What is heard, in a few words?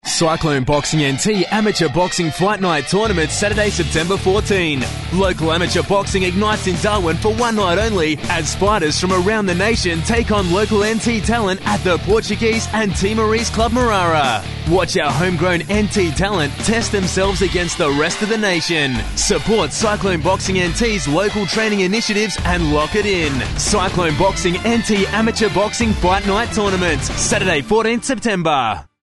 TV sample